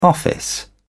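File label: "office", British English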